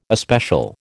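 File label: "especial", American English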